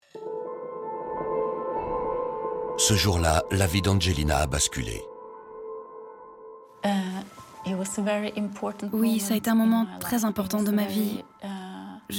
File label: Voice over docu